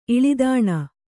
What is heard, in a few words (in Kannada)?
"ಇಳಿದಾಣ", kannada